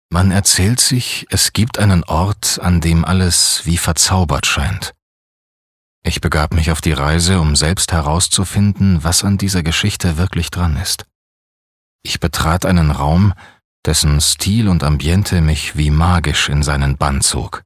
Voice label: Schauspiel Sanft

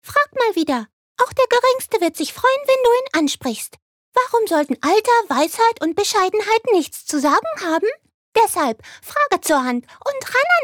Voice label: Charge Maedchen

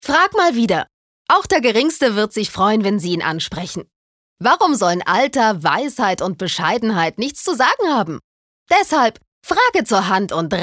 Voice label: Werbung Dynamisch

